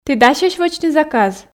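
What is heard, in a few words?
"ті дасьӧсь вӧчны заказ", Komi, female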